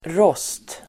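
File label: "rost", Swedish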